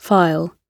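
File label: "file", British English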